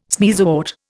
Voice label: "sneezewort", Indian

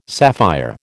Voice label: "sapphire", American

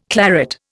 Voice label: "claret", Indian

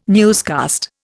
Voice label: "newscast", Indian

